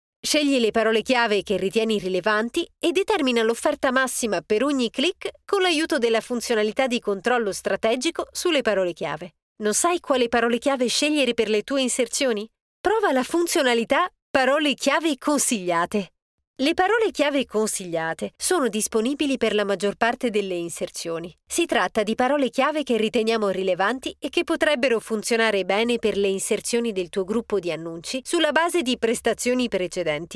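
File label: italian explainer videos, Female; voice actor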